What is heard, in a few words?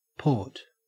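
"port", Australian English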